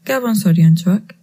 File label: christmas, basque